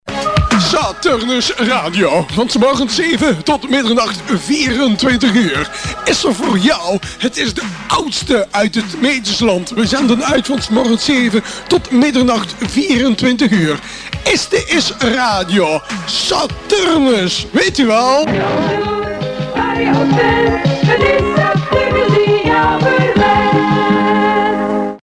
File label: Jingle; RS Saturnus